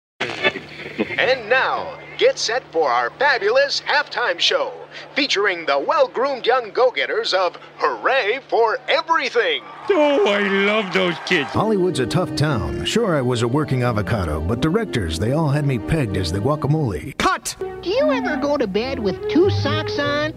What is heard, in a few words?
Character